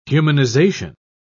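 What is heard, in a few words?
"humanization", English, uk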